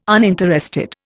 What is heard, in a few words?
"uninterested", Indian